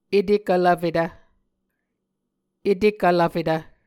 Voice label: "etekvlvfetv", Muscogee

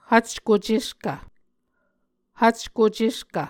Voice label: "hvcko ceskv", Muscogee

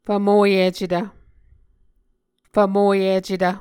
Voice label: "fvmoyicetv", Muscogee

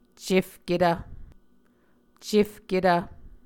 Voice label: "cefketv", Muscogee

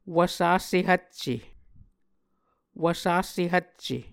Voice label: "Wvsase Hvcce", Muscogee; Mvskoke Words with Repeated Consonants; Martin, Jack B., and Margaret McKane Mauldin. 2023. A Dictionary of Creek/Muskogee

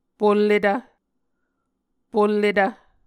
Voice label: "polletv", Muscogee

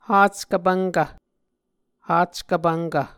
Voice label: "hackvpvnkv", Muscogee

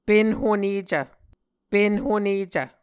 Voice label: "pen honecv", Muscogee

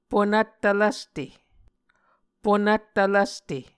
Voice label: "ponvttv lvste", Muscogee

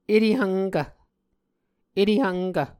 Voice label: "etehvnkv", Muscogee

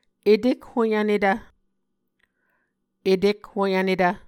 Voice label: "etekhoyvnetv", Muscogee

